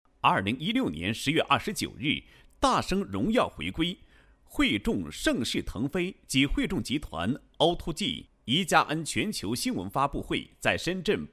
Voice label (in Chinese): 男, 企业宣传; 惠众集团全球新闻发布会配音